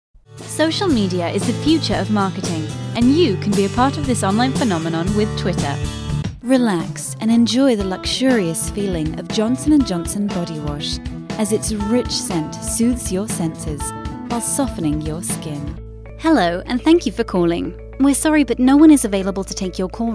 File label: British Female IVR; AdvantageIVR